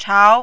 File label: "chao2", mandarin